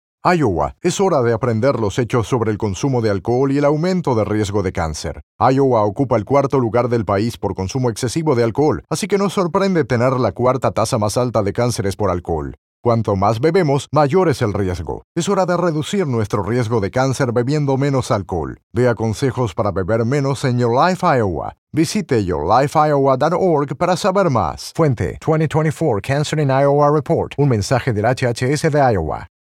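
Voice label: Male Spanish, Radio Spot; Male VO Only; Cancer & Alcohol - Straight Facts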